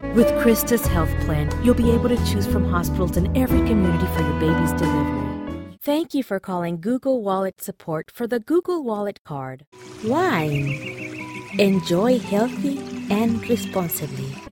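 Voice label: Spanish, English, Latina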